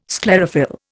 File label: "sclerophyll", Indian